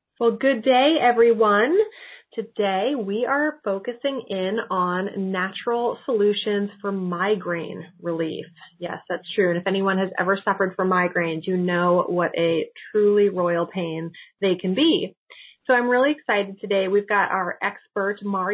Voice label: interview